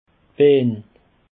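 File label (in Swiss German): "baan", Alsatian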